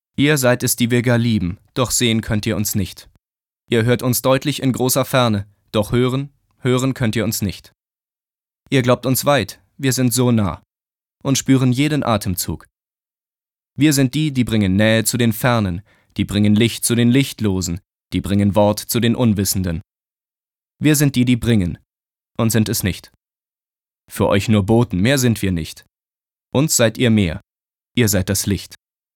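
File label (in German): sachlich, Sprecher, Männer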